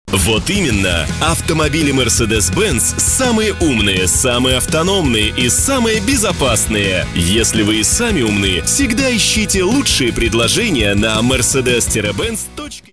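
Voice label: Russian, male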